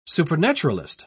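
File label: "supernaturalist", English, British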